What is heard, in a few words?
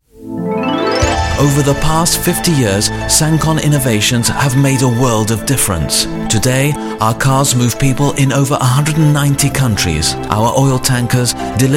English Radio Spot